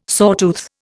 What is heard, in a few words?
"sawtooth", Indian